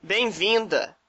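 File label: welcome, Brazilian Portuguese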